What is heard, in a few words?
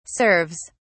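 "serves", British English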